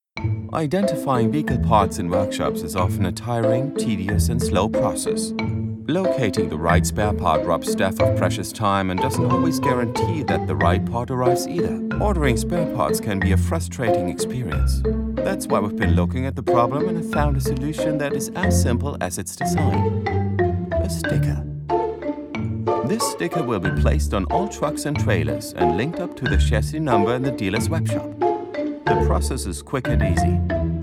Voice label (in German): Produkt Video Englisch Ton